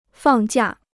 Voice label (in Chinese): "放假", Chinese